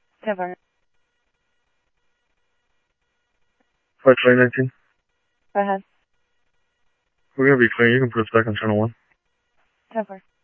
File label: Mesa Simulcast, MESA PD, scanner audio